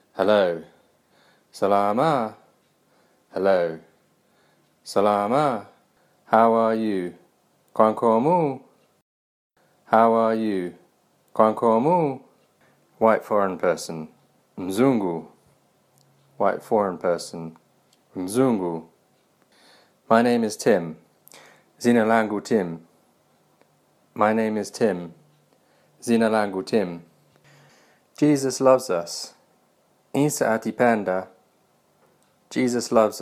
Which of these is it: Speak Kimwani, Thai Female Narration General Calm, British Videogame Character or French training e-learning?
Speak Kimwani